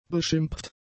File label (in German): "beschimpft", German; adjectives